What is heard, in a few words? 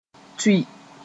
"tui", moore